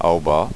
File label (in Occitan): "aubar", gasconha